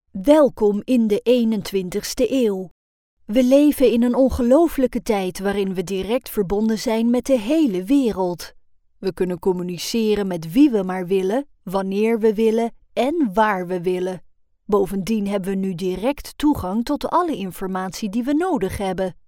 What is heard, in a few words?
dutch